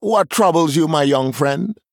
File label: Male Troll; Brukan; Guff